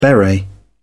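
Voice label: "beret", English, British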